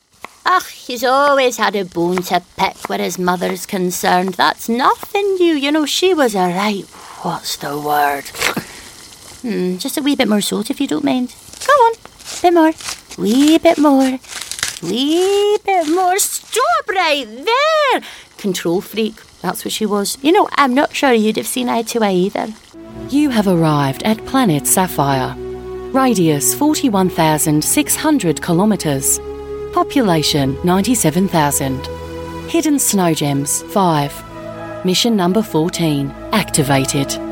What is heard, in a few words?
character